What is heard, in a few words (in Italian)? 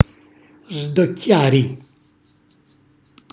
"sdocchiari", Calabrese; cucina calabrese